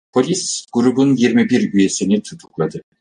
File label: "grubun", Turkish; mozilla voice